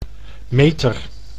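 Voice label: "meter", Dutch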